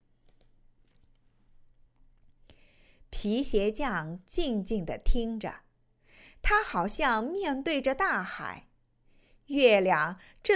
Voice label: 有声读物; 皮鞋匠与妹妹看大海

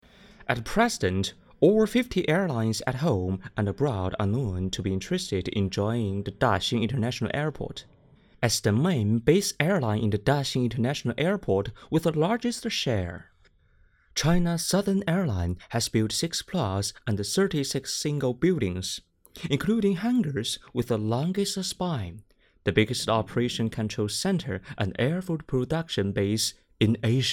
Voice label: 大兴机场英文解说配音

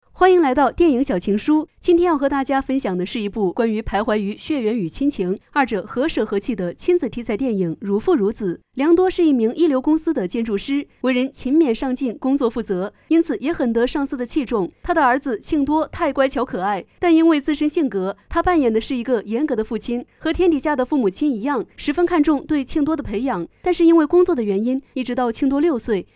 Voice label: 电影解说 试音

